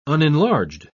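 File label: "unenlarged", British English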